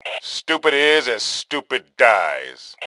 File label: Taunt Obnoxious, Male; T2 Male 02